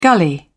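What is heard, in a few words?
"gully", British English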